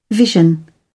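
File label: "vision", English, British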